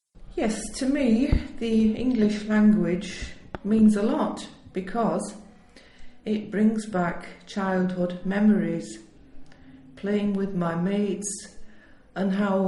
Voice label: Englisch

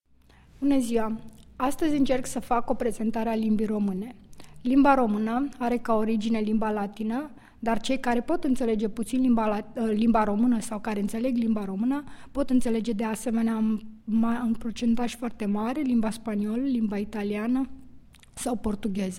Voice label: Rumaenisch

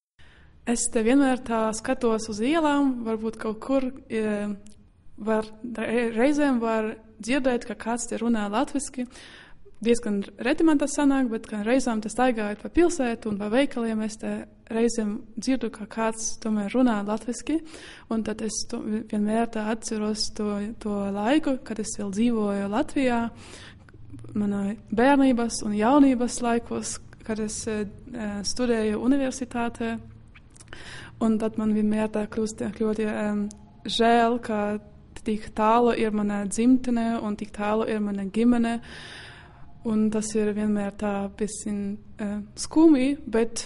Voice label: Lettisch

